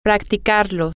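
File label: to Practice It masculine, Spanish, female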